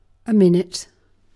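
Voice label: "minute", British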